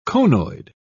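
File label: "conoid", British English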